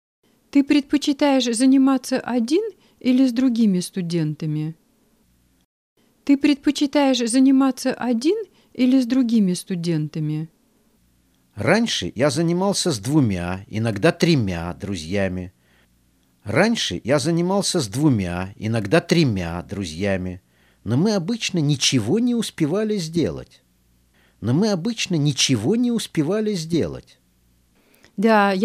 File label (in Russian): Dialogue (Pauses); russian